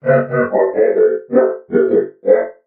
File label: murmur